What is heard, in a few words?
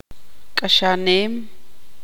tzutujil